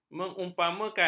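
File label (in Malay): "mengumpamakan", Malay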